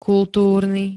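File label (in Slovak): "kultúrny", Slovak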